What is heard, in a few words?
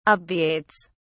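"obviates", British English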